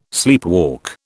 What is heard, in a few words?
"sleepwalk", British